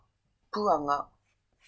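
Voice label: "puanga", Māori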